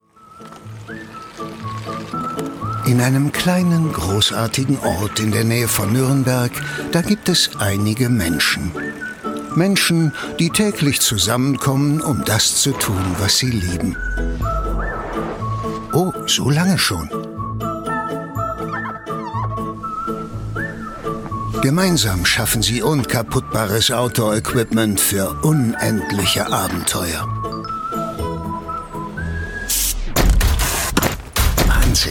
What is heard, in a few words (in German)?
Imagefilm, maenner; Ortlieb